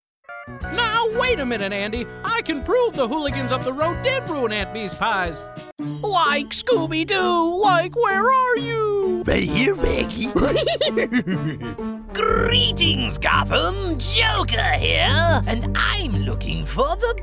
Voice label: Impressions Demo